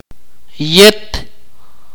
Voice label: "when", Akateko

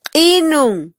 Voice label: "qinun", mam

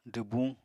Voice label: "dwbuñ", Apatani

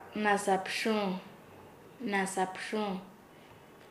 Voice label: "sombra de una persona", Nasa Yuwe; paez

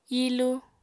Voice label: "hilu", apatani

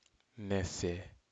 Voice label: "nese", Aneityum

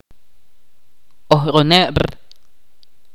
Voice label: "idioma" in Ch'orti'

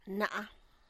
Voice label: "heavy", tlacochahuaya

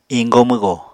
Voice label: "iñgo-mwgo", apatani

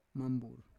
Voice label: "mambur", Roon

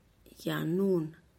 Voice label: "yanun" custard apple

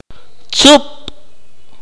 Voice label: "suck", akateko

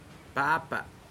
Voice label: "Father", Pingelapese

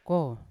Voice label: "kow" good clean, Yawa

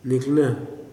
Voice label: "drink", Kuman

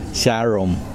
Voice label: lightning, Kosraean

